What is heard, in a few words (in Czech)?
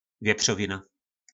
"Věpřovina", nářečí Po našimu; Vepřové